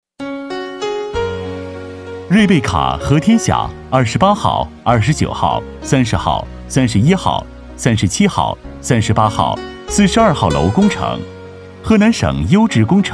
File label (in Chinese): 男, 专题; 河南省优质工程”汇报材料